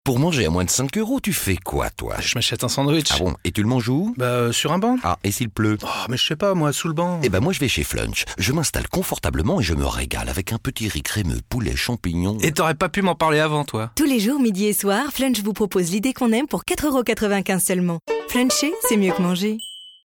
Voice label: RADIO ID QU'ON AIME LE SANDWICH; FLUNCH

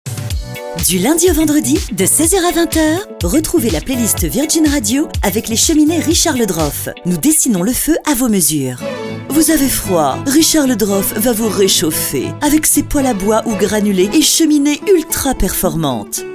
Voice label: voix normal puis snob

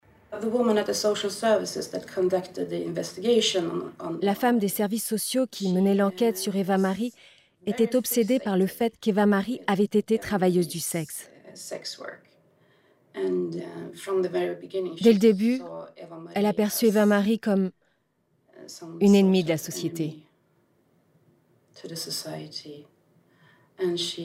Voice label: Documentaire; Canal

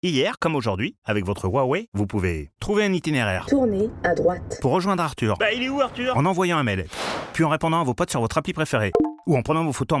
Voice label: Radio; HUAWEI

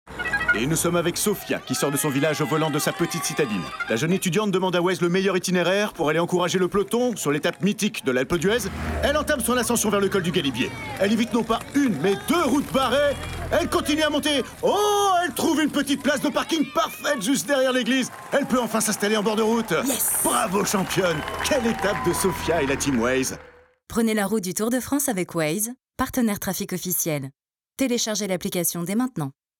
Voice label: spot radio Voix commentateur sportif; waze

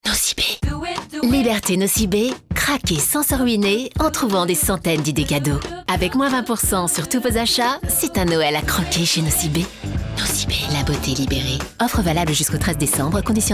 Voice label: Radio; NOCIBE; Craquer Noel

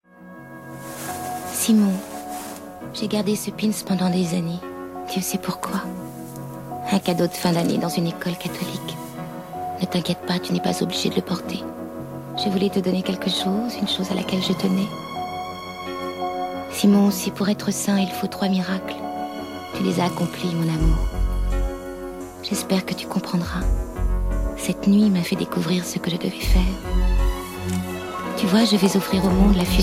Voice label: voix interieur, voix-off; Le Saint

